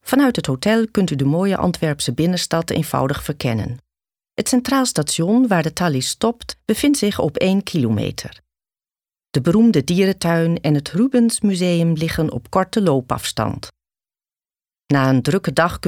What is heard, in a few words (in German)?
NL Kommentar Neutral